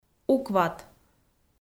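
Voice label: "укват", Komi, female; kpv audio dict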